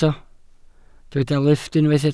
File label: "lifft", Welsh